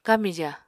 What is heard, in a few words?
"kamija", Apatani